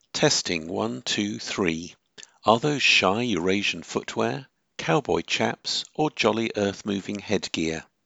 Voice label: test Jabra Evolve 40